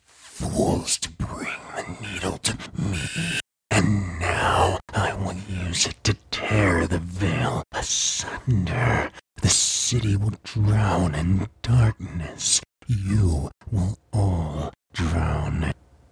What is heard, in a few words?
Ethereal yet sinister creature voice with otherworldly quality and psychological depth, Audiobook Creature; Mist Monster